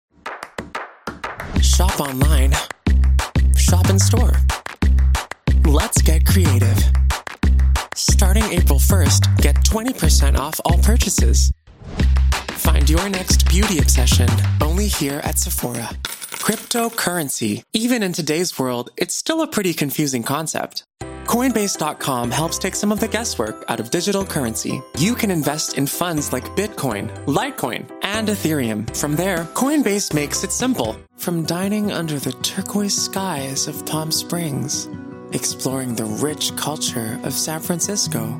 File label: commercial demo, voice